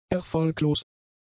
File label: "erfolglos", German; adjectives